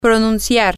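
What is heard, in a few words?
Spanish, to pronounce, female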